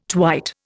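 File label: "twite", Indian English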